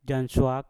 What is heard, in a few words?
"janswak", Mpur; rumah kebun